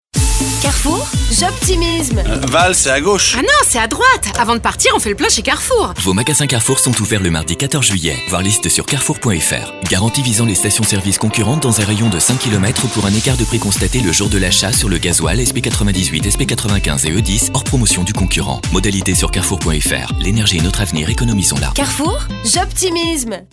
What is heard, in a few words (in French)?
chaleureux, voix off publicite; droit, rapide; CARREFOUR